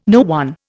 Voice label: "no one", Indian